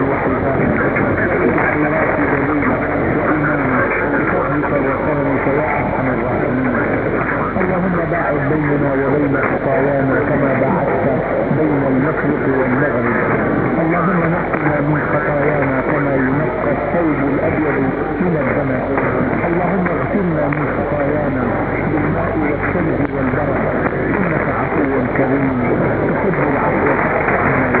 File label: arabic